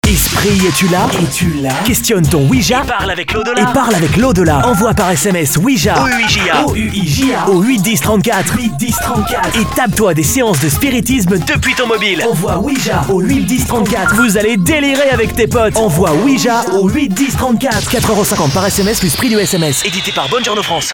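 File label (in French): spot, spots radio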